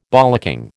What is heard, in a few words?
"bollocking", American